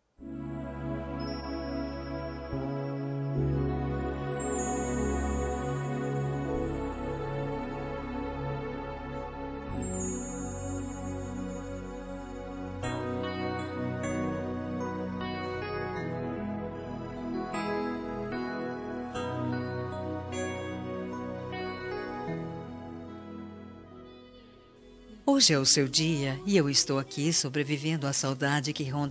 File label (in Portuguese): dia das mães distante, voz feminina; dia das maes para mae distante voz feminina